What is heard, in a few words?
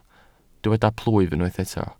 "plwyf", Welsh